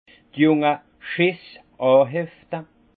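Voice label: "junge", Alsatian, Haut Rhin, lier les jeunes pousses; vin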